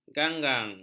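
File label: "ganggang", Malay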